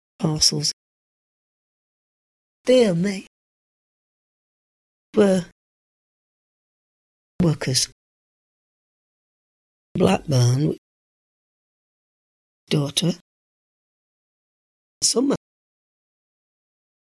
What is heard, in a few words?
Chorley district, nonrhotic; birth 1934; pa(r)cels dea(r)-me we(r)e wo(r)ke(r)s Blackbu; rhoticity; accents